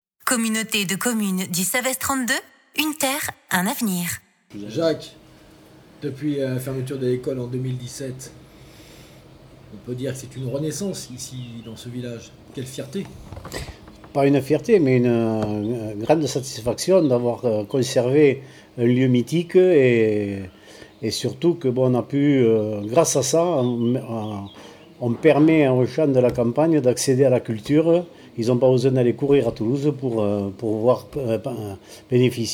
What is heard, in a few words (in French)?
Reportage Tournan